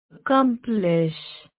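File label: "accomplish", Indian female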